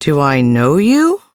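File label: Ambient Female Sweeper, Dialog; Duviri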